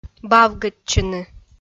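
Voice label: "бавгӧдчыны", Komi, female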